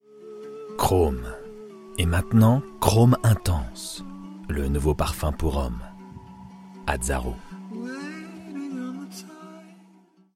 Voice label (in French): pub tres grave sensuel; voix off masculine; voix off masculine naturelle, posée, souriante